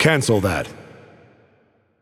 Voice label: Announcer Cancel